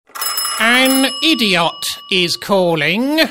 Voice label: Idiot Calling, ringtones funny